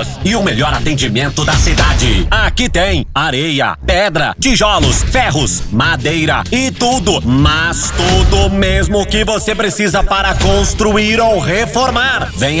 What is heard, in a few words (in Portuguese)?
Spot materiais de construcao